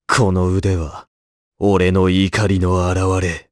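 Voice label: vox Japanese; Dimael; kingsraid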